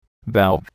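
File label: "valve", English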